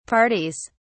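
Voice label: "parties", British English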